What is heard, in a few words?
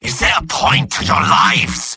jeers; medic; mvm